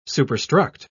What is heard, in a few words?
"superstruct", en uk